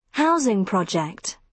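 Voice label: "housing project", British English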